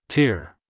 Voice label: "tear", English; english sounds review quiz

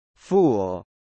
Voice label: "fool", English; english sounds review quiz

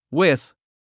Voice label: "with", English; english sounds review quiz

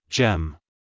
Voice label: "gem", English

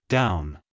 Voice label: "down", English; english sounds review quiz

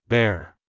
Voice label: "bear", English; english sounds review quiz

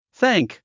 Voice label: "THANK", English; english sounds review quiz

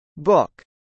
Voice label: "book", English; minimal pairs quiz

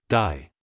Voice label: "die", English; english sounds review quiz

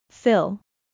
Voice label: "fill", English; minimal pairs quiz

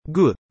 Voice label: "good", English; english sounds review quiz

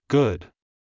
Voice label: "good", English; english sounds review quiz

